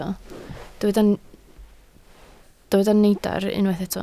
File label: "neidr", Welsh